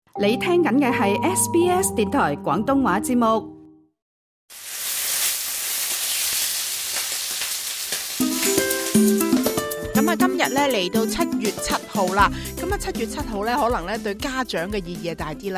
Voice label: cantonese; 【茶餐廳人氣之選】白汁雞皇懶人食譜大公開; 《美食速遞》; 港式經典——白汁雞皇; SBS Chinese